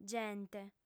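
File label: "gente", Sardinian, female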